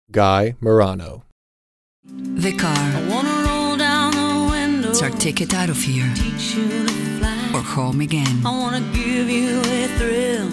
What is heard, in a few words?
English Commercial Voice Over